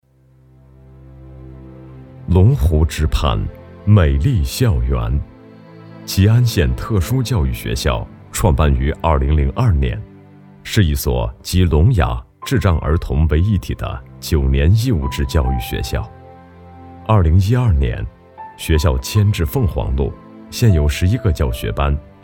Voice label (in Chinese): 男, 记录, 特殊教育; 吉安县